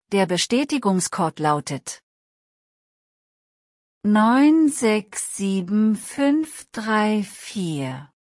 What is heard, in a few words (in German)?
ssml example zahlencode langsam de